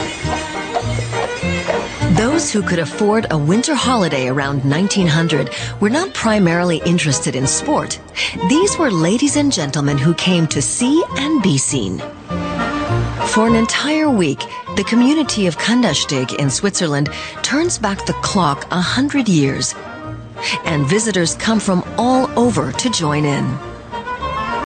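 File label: US English, Fremdtake Freundlich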